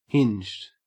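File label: "hinged", Australian English; pronunciations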